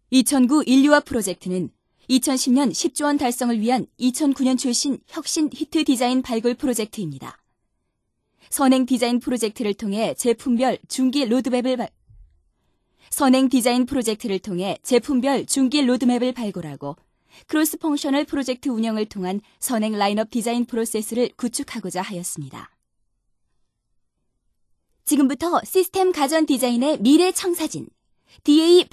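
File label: Korean female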